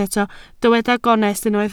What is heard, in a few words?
"gonest", Welsh